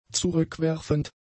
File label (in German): "zurückwerfend", German; adjectives